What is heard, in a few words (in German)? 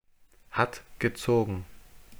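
"hat gezogen", German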